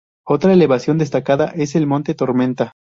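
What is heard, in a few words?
"monte", Spanish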